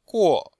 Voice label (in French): "guo4", chinois; Cours de Chinois